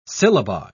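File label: "syllabi", British English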